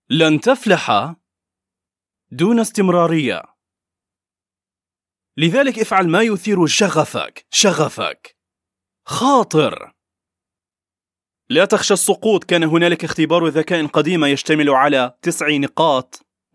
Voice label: arabic male natural

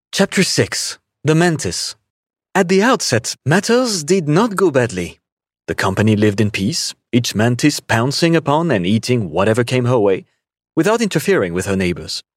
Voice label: french male audiobook en w fr

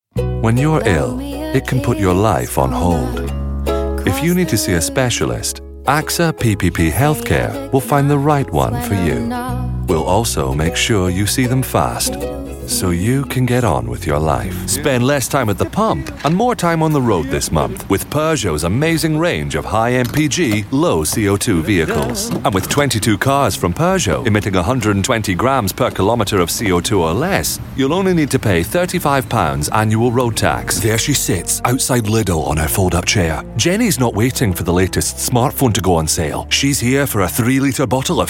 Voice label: commercial

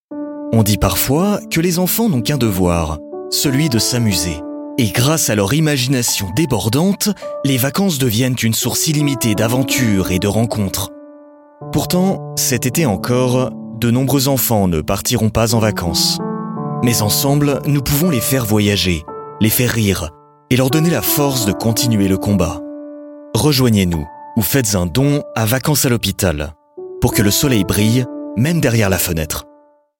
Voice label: french male charity read fr

